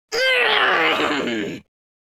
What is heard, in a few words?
angry, Italian